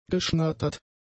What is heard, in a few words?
"geschnattert", German; adjectives